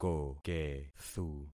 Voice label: "kokethu", concat syllables; Affixing experiment